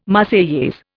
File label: "Marseillaise", Indian